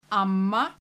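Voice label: "Amma", Icelandic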